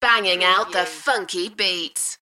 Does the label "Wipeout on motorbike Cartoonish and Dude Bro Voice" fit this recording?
No